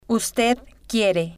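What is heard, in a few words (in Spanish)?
"ud quiere", Spanish, female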